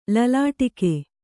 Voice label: "ಲಲಾಟಕ", kannada

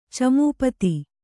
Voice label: "ಚಮೂಪತಿ", Kannada

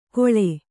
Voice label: "ಗೋಳೆ", kannada

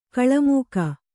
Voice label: "ಕೋಲುಮುಕ್ಕ", Kannada

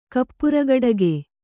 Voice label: "ಕಪ್ಪರಗೊಡಗೆ", Kannada

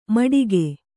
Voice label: "ಮಟಕು", Kannada

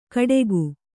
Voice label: "ಕಡೆಗು", kannada

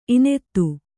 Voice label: "ಇನೆತ್ತು", Kannada; inettu; noun

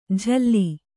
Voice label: "ಜಲ್ಲಿ", Kannada

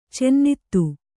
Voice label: "ಚಿನ್ನಿಧಿ", Kannada

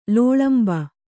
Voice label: "ಲೋಳಂಬ", Kannada

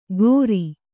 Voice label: "ಕೊರಿ", Kannada

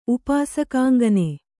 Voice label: "ಉಪಾಸಕಾಂಗನೆ", Kannada

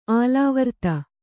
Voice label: "ಆಲಾವರ್ತ", Kannada

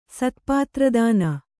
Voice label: "ಸ್ಥಪತಿರತ್ನ", Kannada

